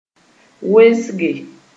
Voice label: "wesge", moore